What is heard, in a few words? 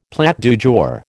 "plat du jour", American